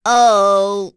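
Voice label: Vox Deny, Korean; Cecilia; kingsraid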